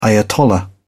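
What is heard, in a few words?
"ayatollah", British English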